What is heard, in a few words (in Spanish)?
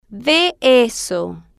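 ve eso, Spanish, female, slow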